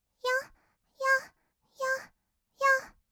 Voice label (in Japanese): よっよっ, kawaii voice; ASMR; 合いの手; 1200 kawaii voice!!